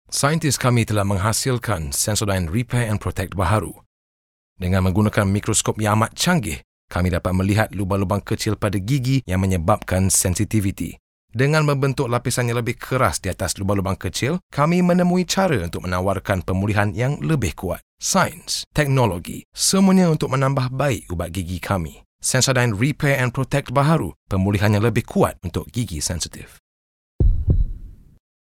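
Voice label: Malaysia, male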